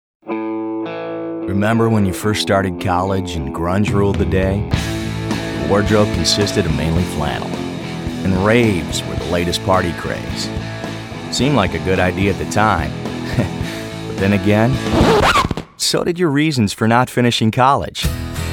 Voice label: Radio